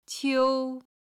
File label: "qiu1", Chinese